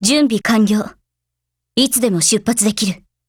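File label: operation begin, Japanese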